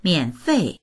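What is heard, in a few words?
"mian3fei4", Mandarin Chinese; 免费; 無料の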